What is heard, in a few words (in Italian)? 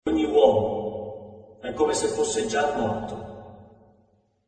Voce fuori campo; teatro